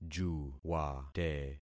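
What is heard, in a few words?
"juwate", concatenated syllables; Affixing experiment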